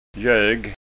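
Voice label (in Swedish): "gog", Scanian dialect; Kaffekask -gök; Kaffe med sprititus; Coffee hard liquor mix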